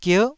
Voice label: "gier9", Taiwanese syllable